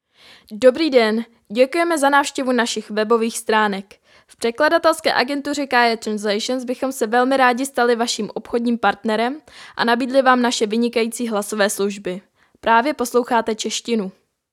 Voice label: czech female